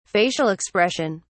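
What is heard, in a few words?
"facial expression", British English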